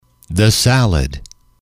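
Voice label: "the salad", English, male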